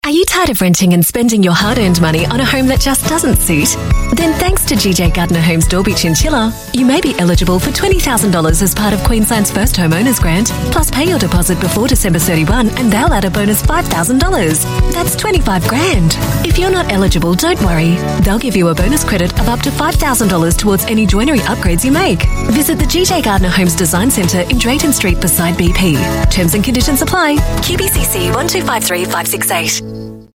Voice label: AU Mid Atlantic English, English Australian Female; GJ Gardner